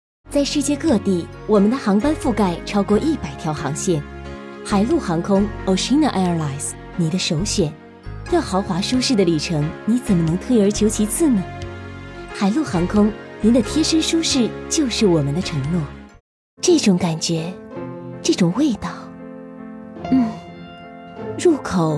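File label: Mandarin, TV commercial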